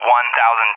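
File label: "100", English, USA; Numbers; DCS; Allied Flight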